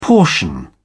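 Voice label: "portion", British English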